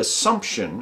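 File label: "assumption", American English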